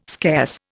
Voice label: "scarce", Indian

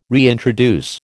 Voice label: "reintroduce", American

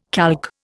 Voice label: "calque", Indian

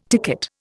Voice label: "ticket", Indian

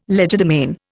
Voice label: "legerdemain", Indian

